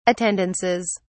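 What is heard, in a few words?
"attendances", English, British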